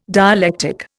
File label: "dialectic", Indian